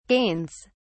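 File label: "gains", British English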